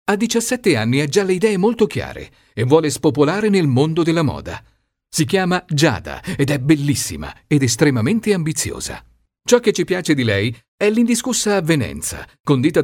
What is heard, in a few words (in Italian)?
ITALIANO DINAMICA